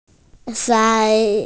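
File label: "sai1", Mandarin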